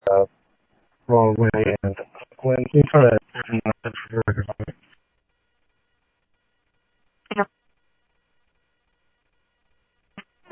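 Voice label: Simulcast police department, scanner audio trunk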